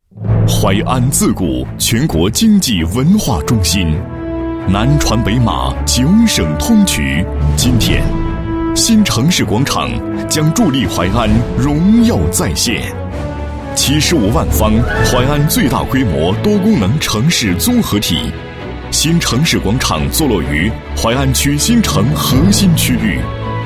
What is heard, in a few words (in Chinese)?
男 房地产广告, 广场宣传简介; 新城市广场